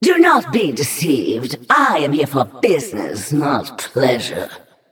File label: Female Naga